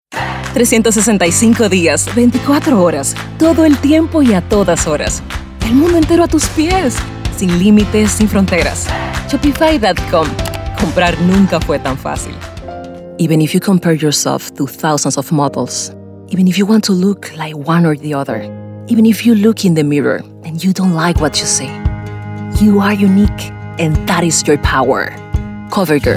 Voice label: COMERCIAL